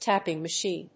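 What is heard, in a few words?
"tapping machine", English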